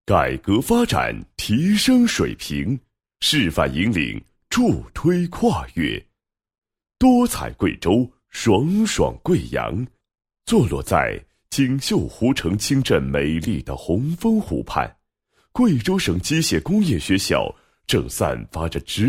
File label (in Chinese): 男 学校 宣传简介; 机械学校